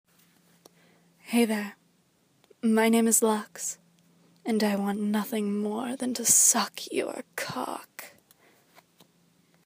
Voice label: Sultry Voice Sample